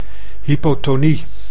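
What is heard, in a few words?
"hypotonie", Dutch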